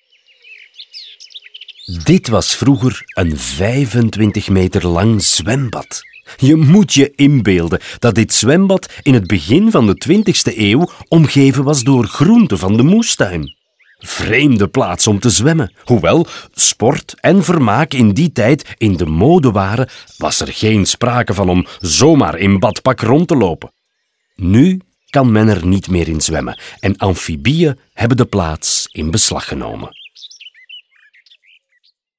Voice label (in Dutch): audioguide nl enfant; jardin; modave